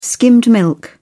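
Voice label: "skimmed milk", British English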